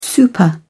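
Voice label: "super", English, uk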